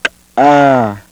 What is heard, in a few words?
lateral, xoo